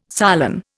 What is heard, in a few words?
"solen", Indian